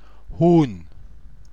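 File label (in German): "Huhn", German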